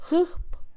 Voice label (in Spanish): afilado, Mixe, Mujer; Percepción; INALI